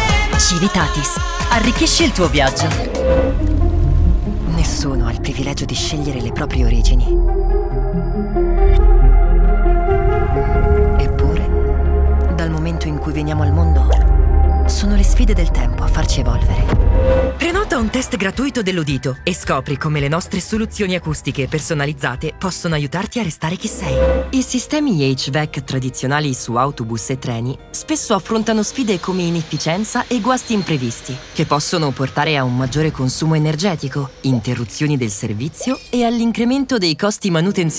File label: italian promos, italian voice actors